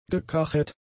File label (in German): "gecached", German; adjectives